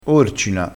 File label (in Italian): "orcina", crespinese